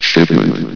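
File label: "shipment", male synthesized announcer voice; hlvox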